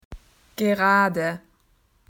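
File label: "straight", Australian English; PictureDictionary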